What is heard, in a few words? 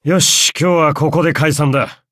victory, ja; cookie run